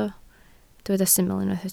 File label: "syml", Welsh